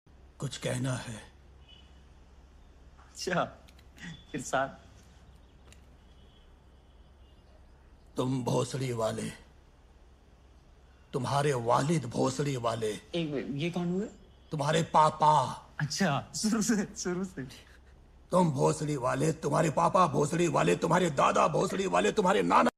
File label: Dialogue Ringtone; Mirzapur